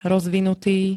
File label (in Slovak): "rozvinutý", Slovenské